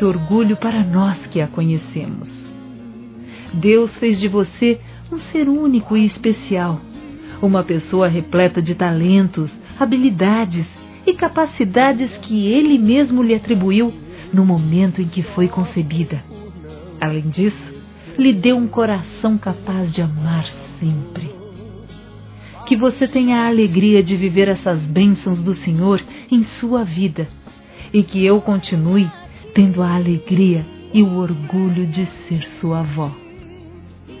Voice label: Aniversário Neta Evangélica Feminino; Aniversários; Aniv Familiar

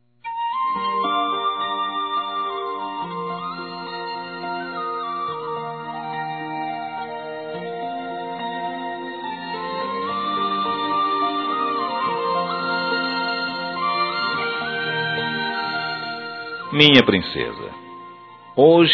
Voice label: Aniversário Neta Masculino

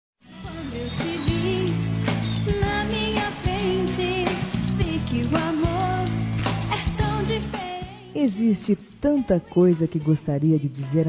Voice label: NETO(A)-F, Telemensagens; Aniversário Infantil

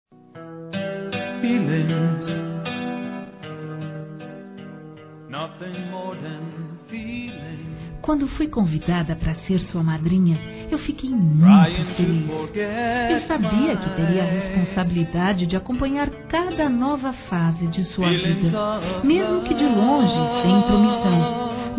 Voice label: Aniversário Afilhado Feminino; Aniversários; Aniv Familiar

